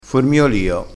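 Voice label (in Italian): "formiolio", dialetto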